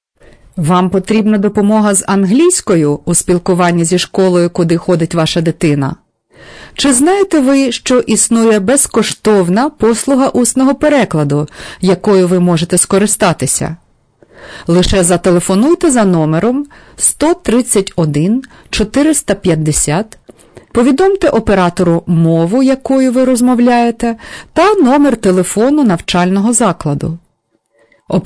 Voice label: ukrainian; public schools; going to a public school